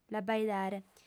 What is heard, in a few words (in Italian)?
"l'abaidare", lingua sarda, fem